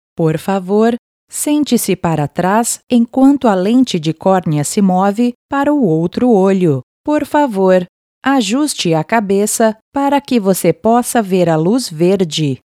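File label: Portuguese (Brazil) Female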